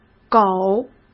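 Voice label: "狗", Mandarin Chinese; chinese-flashcards; hsk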